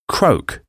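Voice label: "croak", English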